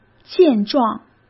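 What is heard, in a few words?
"健壮", Mandarin Chinese; chinese flashcards; hsk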